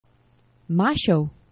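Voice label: "maŝo", Esperanto; geneva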